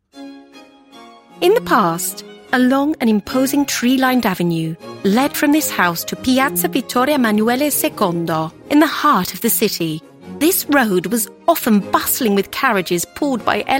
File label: eng audio casina principessa; Casina della Principessa